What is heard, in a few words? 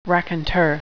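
"raconteur", English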